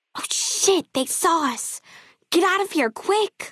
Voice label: female child take water; FNV

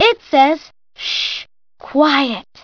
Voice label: ssh quiet; Putt Time

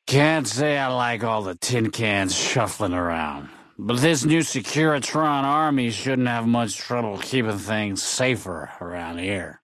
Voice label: male adult greeting; Fnv

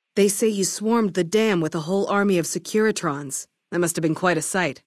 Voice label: female adult greeting; Fnv

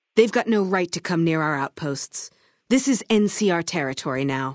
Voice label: female adult greeting; FNV

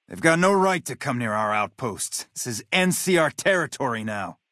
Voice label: male adult greeting; FNV

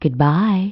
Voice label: Announce Countdown "Goodbye"; BlastChamber PSX

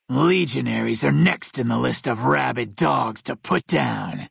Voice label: male ghoul greeting; Fnv

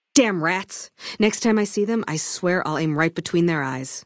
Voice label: female adult greeting; FNV

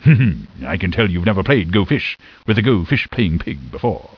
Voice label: talk, big pig; SPYFOX

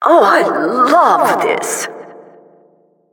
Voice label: announcer killstreak

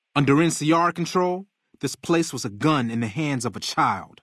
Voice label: male adult greeting; Fnv

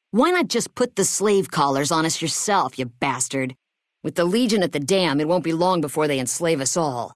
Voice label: female adult greeting; Fnv

